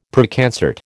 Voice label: "Preconcert", American